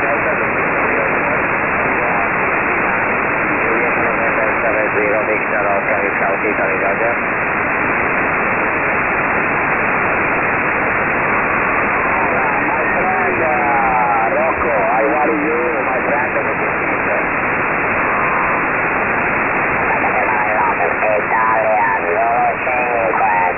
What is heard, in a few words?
italian stations